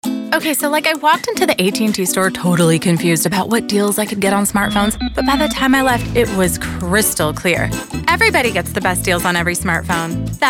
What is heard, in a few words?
commercials